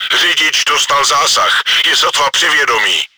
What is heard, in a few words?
driver killed, cz; Řidič dostal zásah, je sotva při vědomí.; Czech; Попадание в механика-водителя, он еле в сознании.; Контузия механика-водителя; World of Tanks